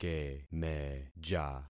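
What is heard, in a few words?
"kemeja", concatenated syllables; Affixing experiment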